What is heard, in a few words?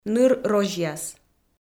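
"ныр розьяс", Komi, female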